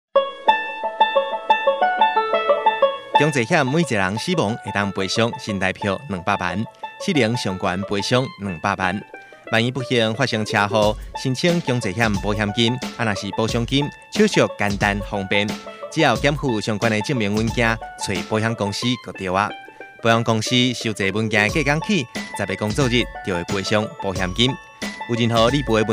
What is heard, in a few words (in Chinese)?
台語; 理賠篇; 11月30日起，微型電動二輪車新車須投保強制險才能上路，現行已領有合格標章行駛上路的微型電動二輪車，應該在施行後2年內投保強制險。